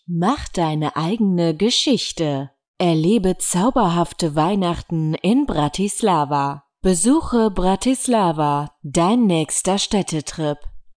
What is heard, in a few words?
GERMAN